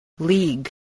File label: "league", English